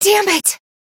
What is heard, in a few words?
pain death; female